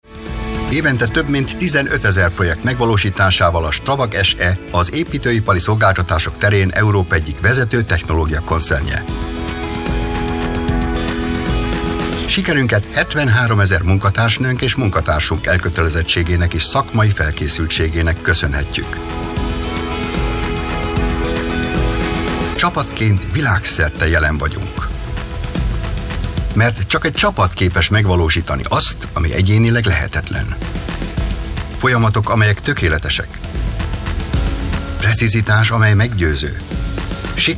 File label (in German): HUN Kommentar Dynamisch